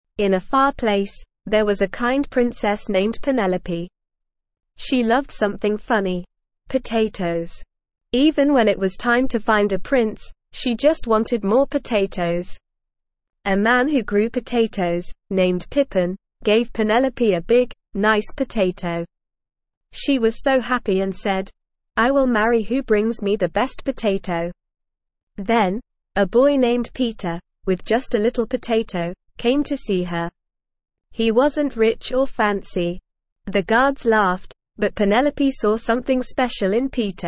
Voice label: ESL Short Stories for Kids Beginner NORMAL Reading; The Princess and the Potato